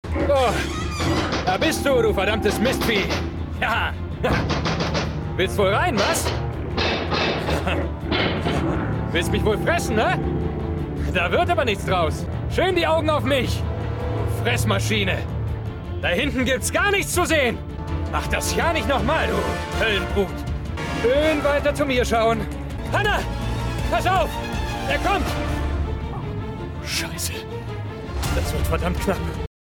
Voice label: Hörspiel Action

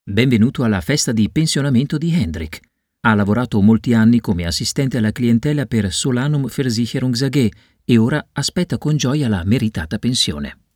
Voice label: erzahlung

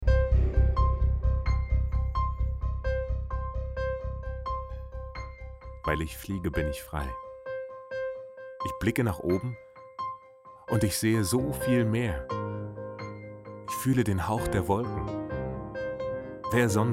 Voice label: doku; colours sky; Sprecher in Berlin; Muttersprache Deutsch

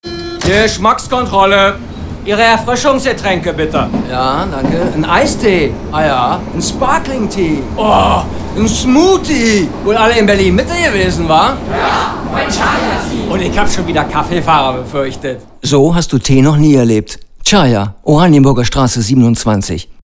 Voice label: radiospot

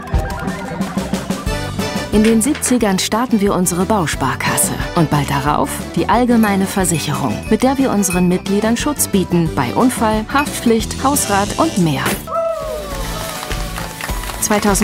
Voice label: historie imagefilm; debeka

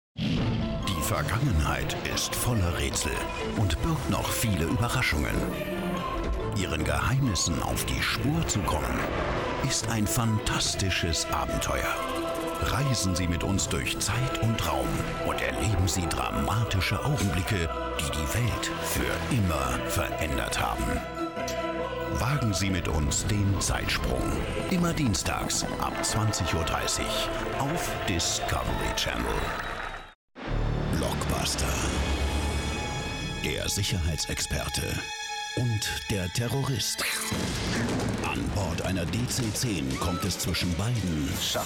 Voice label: fernsehtrailer

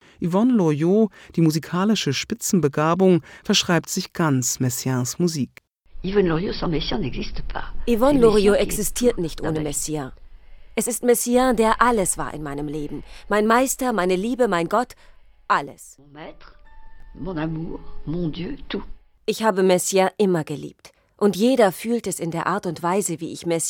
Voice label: zitatorin radiofeature; dlf; komponistenwitwen

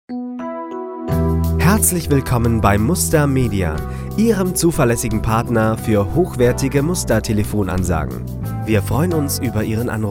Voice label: Telefonansage; Muttersprache Deutsch

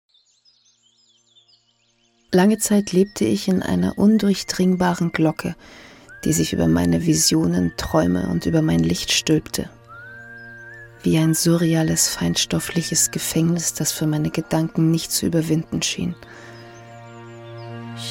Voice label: voiceover erwachsen; anders gesund

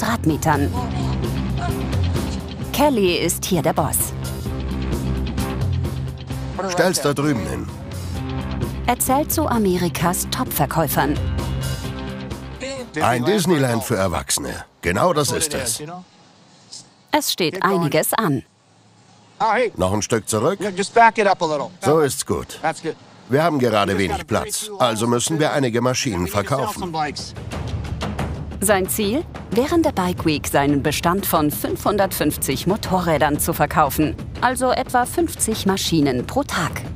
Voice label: Kommentar Doku Daytona Beach Harley Davidson; zdf

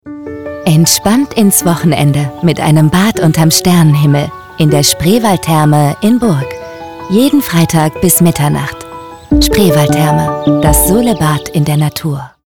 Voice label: therme werbung; spreewald

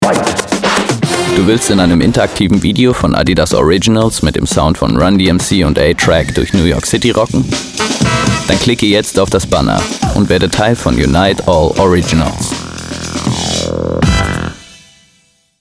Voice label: spotify spot; adidas

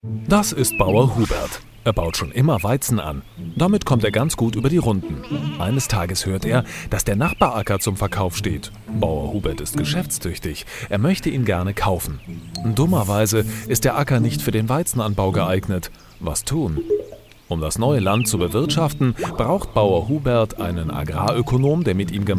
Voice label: imagefilm; scopus

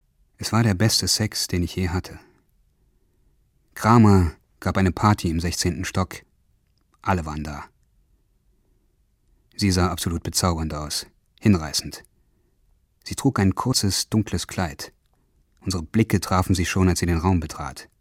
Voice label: Monolog; push; Muttersprache Deutsch

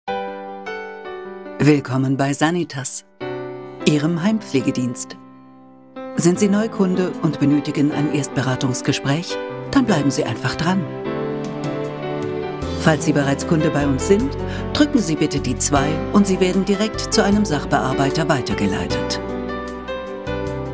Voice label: telefonansage pflegedienst

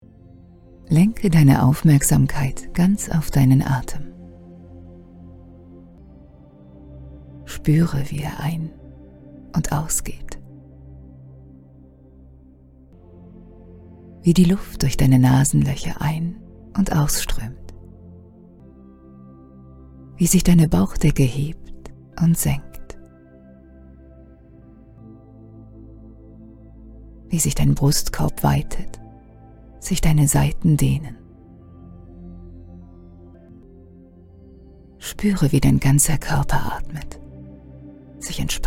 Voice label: meditation

